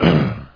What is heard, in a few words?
zombie